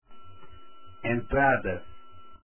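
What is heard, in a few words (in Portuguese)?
"entrada" Portuguese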